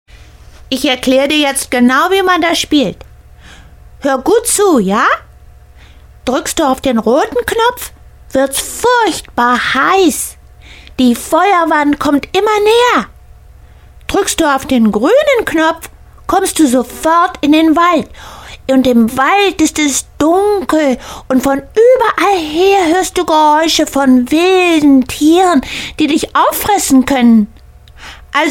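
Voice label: german female character; voice demos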